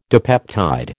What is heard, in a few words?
"dipeptide", American